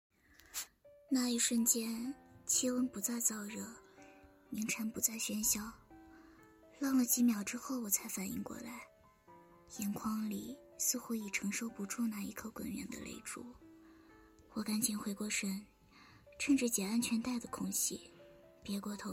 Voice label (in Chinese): 内心独白